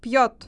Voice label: pj sequence, russian

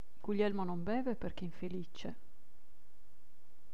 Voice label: beve female

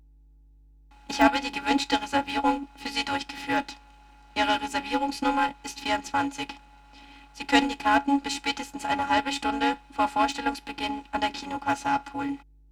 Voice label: slow; SmartKom; Prototypen Stimme

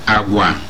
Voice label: behaviour, Igbo

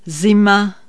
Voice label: winter, Czech

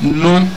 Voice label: hear, Igbo

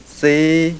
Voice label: highlevel modal

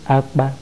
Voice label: high high, ibibio

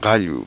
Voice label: uvular voiceless, quechua

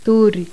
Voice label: unclear rhotic, toda

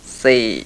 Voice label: low level tense, mpi

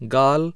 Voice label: hindi

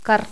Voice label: dental rhotic, toda

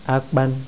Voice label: high falling, ibibio

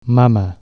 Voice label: mum, Catalan Consonants

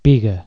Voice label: "speck", Catalan

